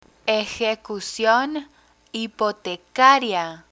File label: "ejecucion hipotecaria", Spanish